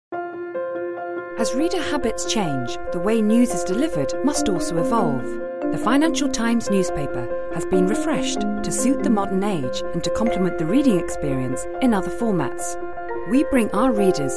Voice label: Authoritative Friendly; FT